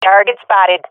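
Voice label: sees enemy, swat female; vo; agents; Source Model Packs; Counter Strike Model Pack; CS2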